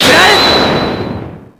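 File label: "take that", Japanese; apollo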